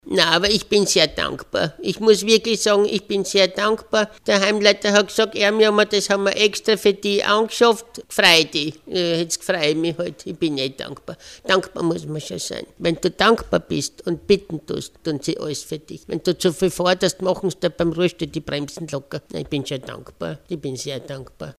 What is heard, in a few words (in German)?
Sprecher Showreel; männliche Sprecher; Werbestimmen